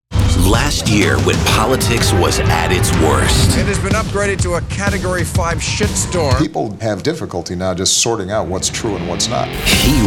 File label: men promos; Understated. Inferred. Fervor.